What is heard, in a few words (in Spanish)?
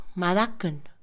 Mixe, Mujer; INALI